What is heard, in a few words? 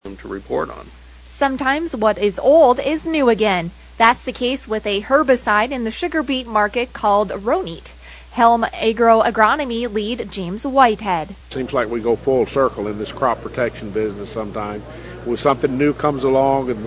air check; HELM